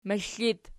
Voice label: "moxl", Archi

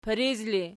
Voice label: "piriz", Archi

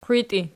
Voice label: "qiwitii", Archi

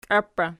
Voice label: "kiaippus imp", Archi

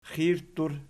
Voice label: "xirttur", Archi

